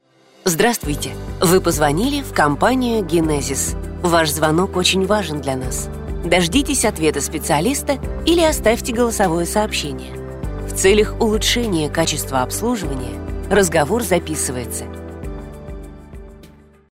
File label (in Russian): Женский уверенный голос для автоинформатора; Голосовое приветствие, автоответчик или меню IVR